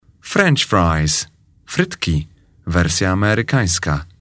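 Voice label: "french fries", English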